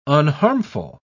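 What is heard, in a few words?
"unharmful", British English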